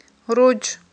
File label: "рудж", Aghul